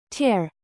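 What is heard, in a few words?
"present", noun, British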